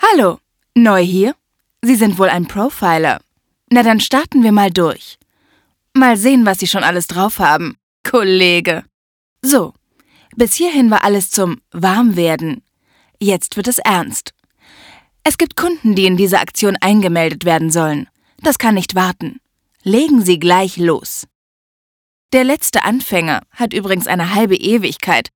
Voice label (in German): elearning; sprechen